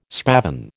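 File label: "spavin", American English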